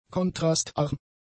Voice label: "kontrastarm", German; adjectives

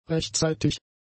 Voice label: "rechtzeitig", German; adjectives

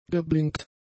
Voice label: "geblinkt", German; adjectives

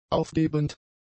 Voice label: "aufgebend", German; adjectives

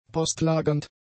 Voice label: "postlagernd", German; adjectives

